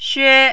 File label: "xue1", mandarin